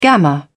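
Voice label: "gamma", English, British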